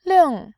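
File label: "ling", Mandarin Chinese, fourth tone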